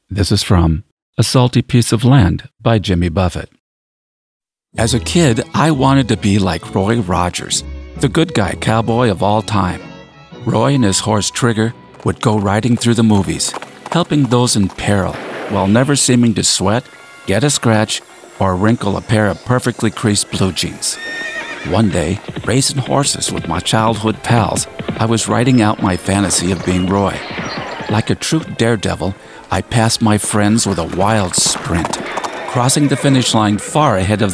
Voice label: Voice Over; A Salty Piece of Land